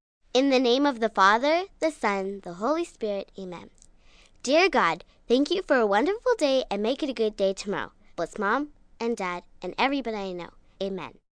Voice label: Bedtime Prayer, Prayers, 1 girl, 9 yrs, Bedtime Blessing, human voice, kid, child, speak, talk; Talking